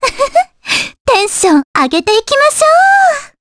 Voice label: Vox Skill jp; Cassandra; kingsraid

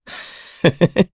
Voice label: Happy, jp; Mitra; kingsraid

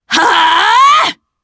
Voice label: Vox Casting, jp; kingsraid

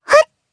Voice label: Jump, jp; voices; heroes; Lewsia A; kingsraid

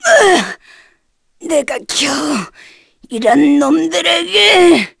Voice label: Dead, kr; Nicky; kingsraid

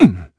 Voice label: Landing, kr; Riheet; kingsraid

